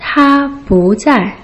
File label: "ta bu zai", Putonghua